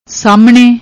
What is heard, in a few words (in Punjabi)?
"saamne", Punjabi; ਸਾਮ੍ਹਣੇ